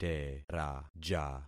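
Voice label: "teraja", concatenated syllables; Affixing experiment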